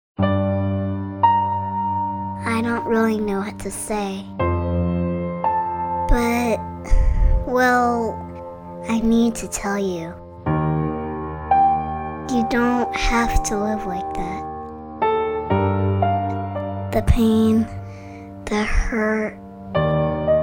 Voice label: Emotional